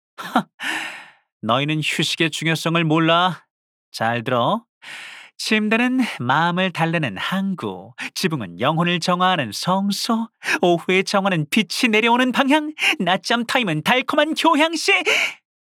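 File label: voice Korean; Randy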